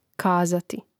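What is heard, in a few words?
"kazati", Croatian; Mrežnik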